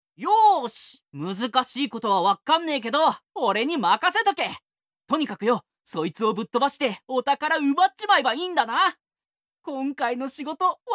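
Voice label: male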